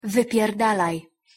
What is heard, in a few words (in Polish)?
wymowa po polski "wypierdalaj"